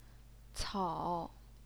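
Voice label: "cao", Mandarin Chinese, third tone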